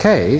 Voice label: "k", male British; Alphabet